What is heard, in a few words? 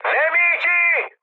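Italian enemy detected mood high, speech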